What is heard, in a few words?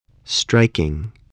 "striking", spelling